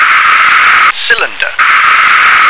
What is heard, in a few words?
"cylinder", English